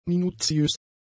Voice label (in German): "minuziös", German; adjectives